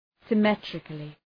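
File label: "symmetrically", English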